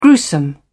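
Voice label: "gruesome", British English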